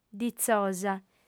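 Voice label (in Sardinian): "ditzosa", female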